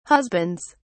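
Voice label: "husbands", British English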